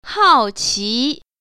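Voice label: "hao4qi2", Mandarin Chinese Tone Pair Drills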